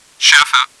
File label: planting, german; csco; csgo; bot